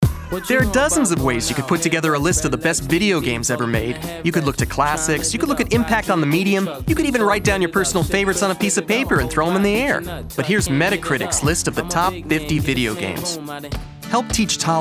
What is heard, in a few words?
VO Commercial